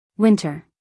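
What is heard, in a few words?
"winter", American English, female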